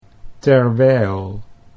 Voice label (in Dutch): "terwijl", Dutch